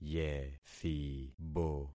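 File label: "yethibo", concatenated syllables; Affixing experiment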